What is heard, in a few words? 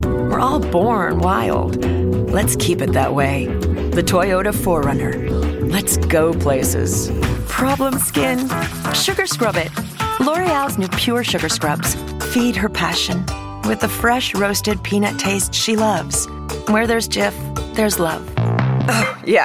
Commercial